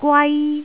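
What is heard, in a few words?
"gwai1", Cantonese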